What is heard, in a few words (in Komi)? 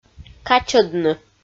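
"качӧдны", Komi, female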